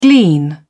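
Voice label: "glean", British English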